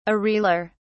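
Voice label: "areolar", English, British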